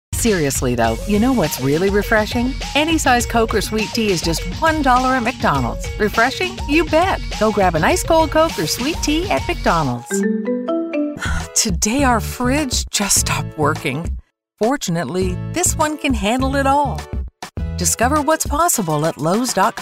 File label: COMMERCIAL